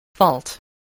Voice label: "fault", spelling